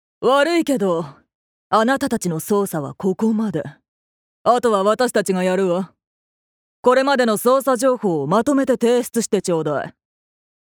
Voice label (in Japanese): 外画